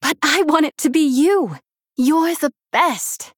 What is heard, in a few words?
Human Female; Jaina